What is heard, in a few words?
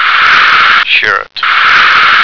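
"shirt", American English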